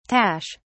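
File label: "tache", British English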